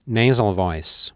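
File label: nasal, human voice; EGG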